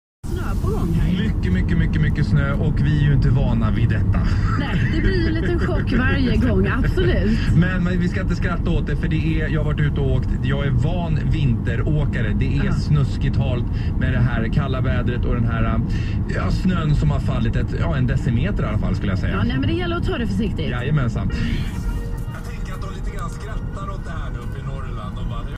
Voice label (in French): radio suède